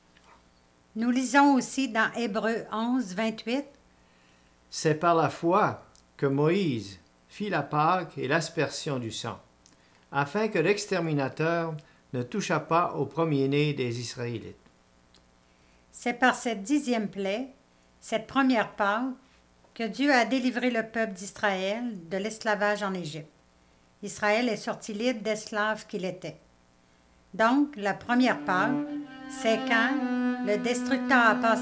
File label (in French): narration et lecture; Cantate : Christ notre Pâque; Pâques